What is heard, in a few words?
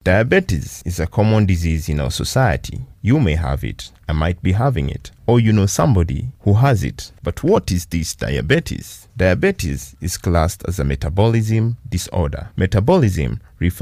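English Afrikaans Male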